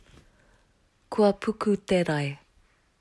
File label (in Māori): "puku te rae", Maori